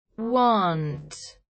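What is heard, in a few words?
"want", Australian, slow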